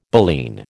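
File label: "baleen", American English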